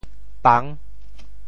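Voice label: "pang6", Teochew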